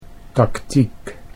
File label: "taktiek", Dutch